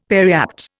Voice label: "periapt", Indian; Dictionary